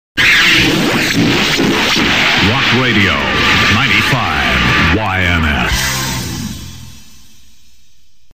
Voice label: Rock Radio; WYNF; 95ynf